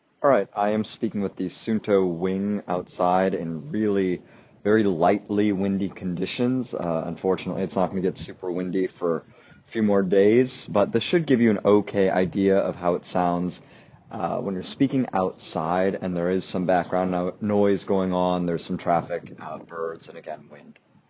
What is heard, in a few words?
microphone non standardized windy; Suunto Wing